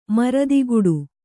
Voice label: "ಮರದಿಗುಡು", Kannada

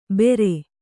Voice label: "ಬೇರೆ", Kannada

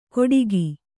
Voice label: "ಕೊಡಿಗಿ", Kannada

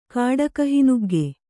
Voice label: "ಕಾಡಕಹಿನುಗ್ಗೆ", Kannada

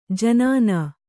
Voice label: "ಜನಾನಾ", Kannada

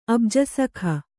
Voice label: "ಅಬ್ಜಸಖ", Kannada

